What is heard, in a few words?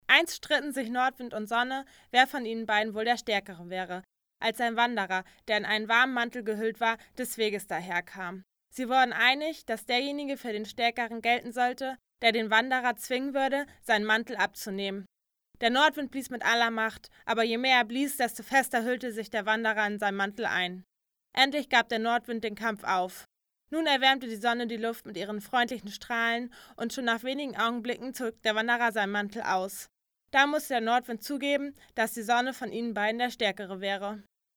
female, 0 km/h, lombard